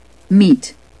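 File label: "meet", British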